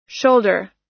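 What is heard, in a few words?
"shoulder", US English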